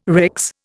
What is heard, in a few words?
"RICS", Indian